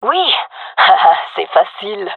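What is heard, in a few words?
round winning, female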